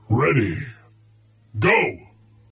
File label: battle narrator ready go